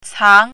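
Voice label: "cang2", Chinese pinyin, second tone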